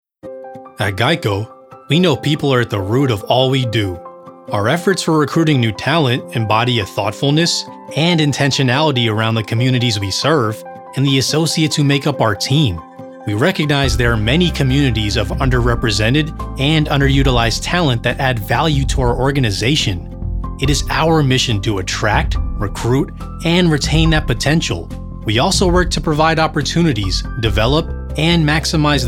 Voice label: Corporate; GEICHO